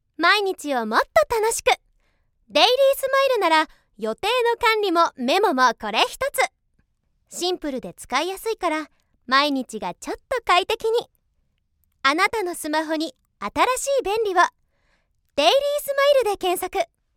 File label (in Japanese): WebCM